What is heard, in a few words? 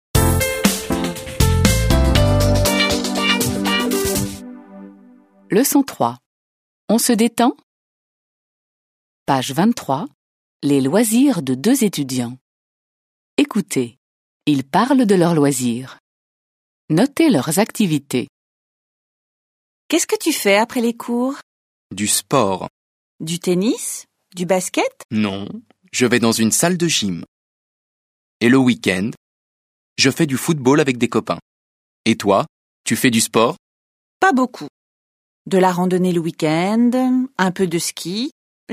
French